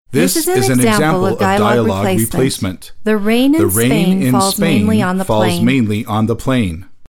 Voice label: female vo male vo together not syncd; post-production